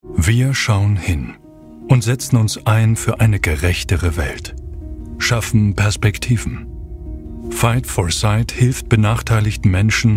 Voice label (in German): Hoerprobe Imagefilm; Fight4Sight